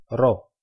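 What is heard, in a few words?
"rho", Greek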